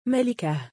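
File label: female name "malikah", Arabic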